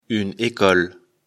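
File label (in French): "école", French; afrikalan; lecture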